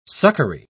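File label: "succory", British English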